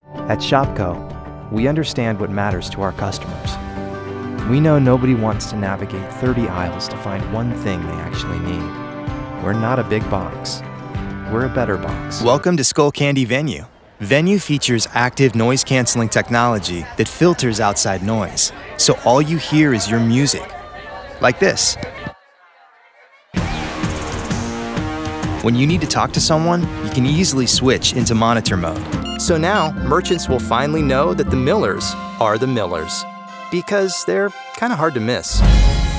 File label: Narration